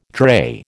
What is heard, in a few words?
"drey", American